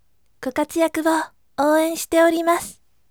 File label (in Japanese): voice "gokatuyaku ouen shitemasu" yasashime